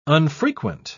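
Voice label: "unfrequent", English, uk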